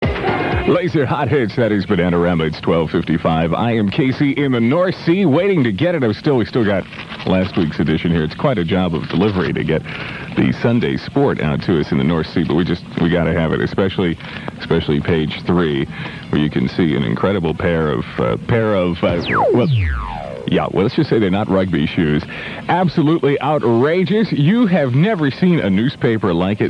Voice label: commercial; Sunday Sport